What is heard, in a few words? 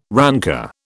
"rancour", British